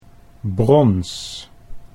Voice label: "brons", Dutch

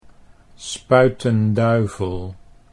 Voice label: "Spuyten Duyvil", Dutch

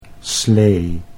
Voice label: "slee", Dutch

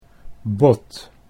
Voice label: "bod", Dutch

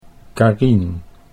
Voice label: "Carien", Dutch

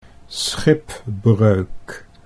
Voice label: "schipbreuk", Dutch

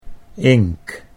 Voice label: "ink", Dutch English

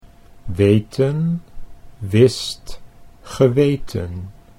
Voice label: "weten wist geweten", Dutch

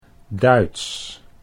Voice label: "Duits", Dutch

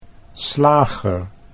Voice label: "slager", Dutch

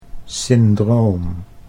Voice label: "syndroom", Dutch

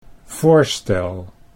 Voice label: "voorstel", Dutch

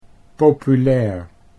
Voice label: "populair", Dutch

